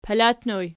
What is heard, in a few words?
"palatnoj", Archi